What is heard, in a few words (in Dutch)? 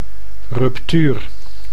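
"ruptuur", Dutch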